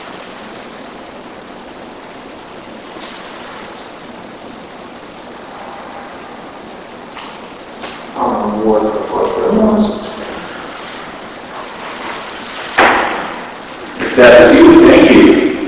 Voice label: Unexplained voice (Was) and unexplained knock AMPLIFIED